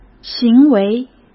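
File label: "行为", Mandarin Chinese; hsk; chinese-flashcards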